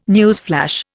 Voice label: "newsflash", Indian

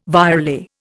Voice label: "virelay", Indian

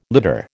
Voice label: "litter", American

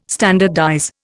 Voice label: "standardize", Indian

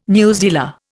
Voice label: "newsdealer", Indian

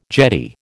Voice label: "jetty", American

